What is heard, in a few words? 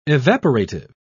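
"evaporative", British English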